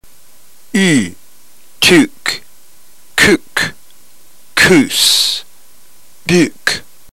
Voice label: drill, ntgreek